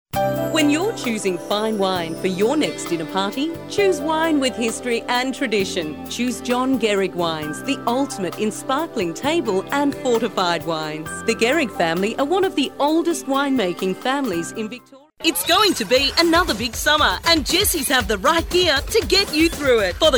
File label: female australian voice over sales